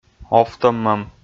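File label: "овтӧммӧм", Komi, male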